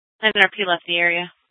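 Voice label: police dispatch, scanner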